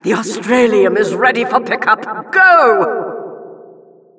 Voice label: announcer drop site